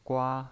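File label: "gua", Mandarin Chinese, first tone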